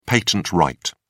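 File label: "patent right", British English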